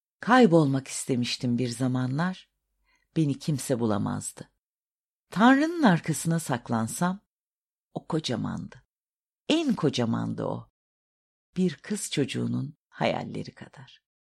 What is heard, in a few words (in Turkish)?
şiir